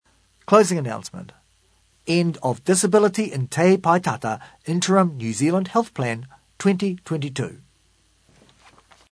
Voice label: Closing Announcement; Te Pae Tata interim Health New Zealand Plan